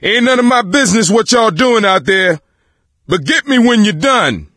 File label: call for rescue, voice; Left 4 Dead 2; coach